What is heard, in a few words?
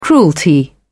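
"cruelty", British English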